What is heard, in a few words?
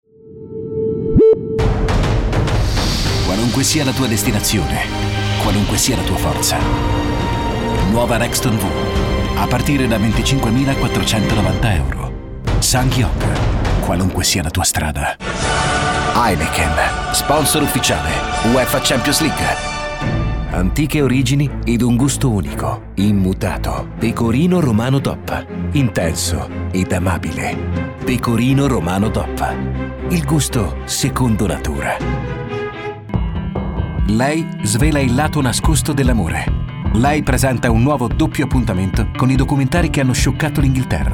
ITALIAN VOICES Authoritative Intense, male voices